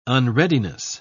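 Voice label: "unreadiness", British English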